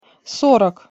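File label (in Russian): "sorok", Russian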